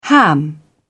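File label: "ham it up", British English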